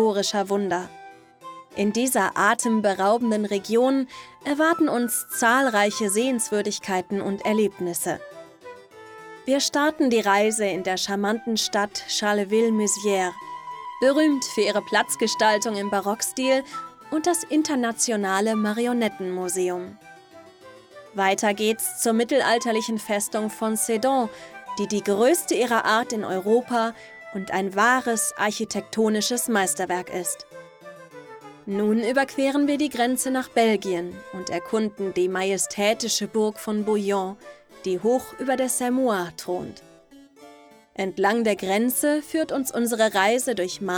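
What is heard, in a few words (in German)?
Doku Ardennen, Sprecherin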